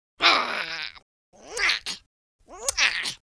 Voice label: happy, War General; speech

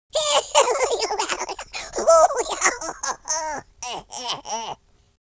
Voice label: happy, American Wrestler

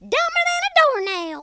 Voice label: "stupid", Cowgirl; speech

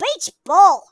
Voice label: "grenade", speech

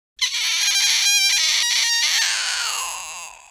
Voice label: scared, Horror

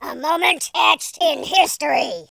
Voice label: perfect, War General

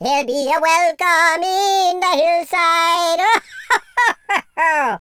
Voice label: "amazing", Welsh; speech

